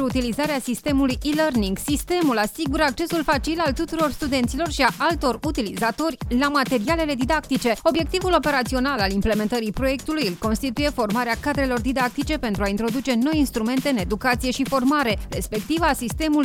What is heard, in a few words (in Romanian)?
spot universitate; universitate kiss